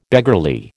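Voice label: "beggarly", American English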